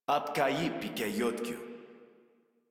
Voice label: Patrol Modular Corpus Male; Dialog; Barks; Battle; Warframe